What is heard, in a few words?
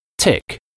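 "tick", English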